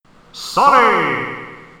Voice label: announcer call